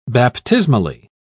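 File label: "baptismally", British English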